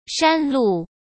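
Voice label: "山路", Mandarin Chinese; hsk; chinese-flashcards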